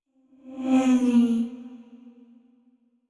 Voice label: thinking short; VO yamiko